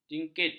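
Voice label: "jingkit", Malay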